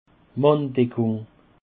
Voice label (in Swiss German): "manndeckung", Alsace